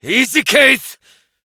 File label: Horse Stop Adult; Duviri